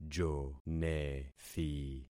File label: "jonethi", concat syllables; Affixing experiment